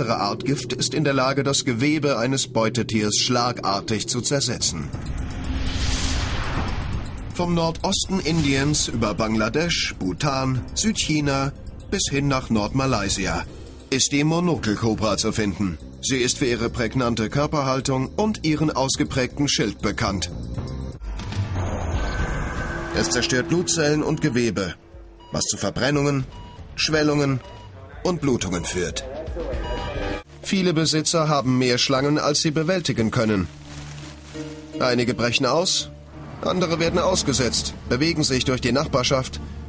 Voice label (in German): Kommentar Raubtiere